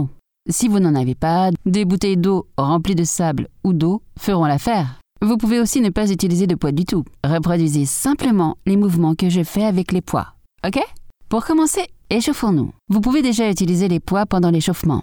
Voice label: Yoga Training, voix off femme